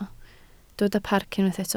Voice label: "parc", Welsh

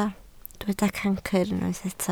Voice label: "cancr", Welsh

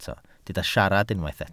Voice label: "siarad", Welsh

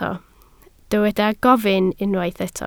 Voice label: "gofyn", Welsh

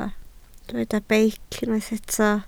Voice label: "beic", Welsh

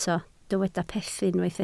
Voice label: "peth", Welsh

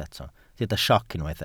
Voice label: "sioc", Welsh

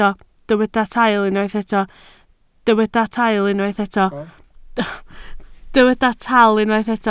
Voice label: "tal", Welsh

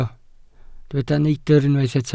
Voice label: "neidr", Welsh

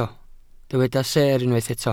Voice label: "seer", Welsh

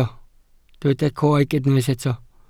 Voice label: "coeg", Welsh

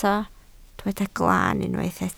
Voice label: "glaan", Welsh

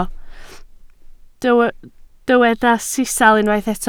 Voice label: "sisial", Welsh

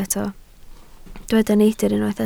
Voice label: "neidr", Welsh

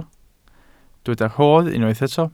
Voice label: "rodd", Welsh

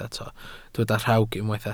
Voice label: "rhawg", Welsh

